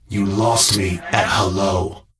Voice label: Death Knight Male Low Pissed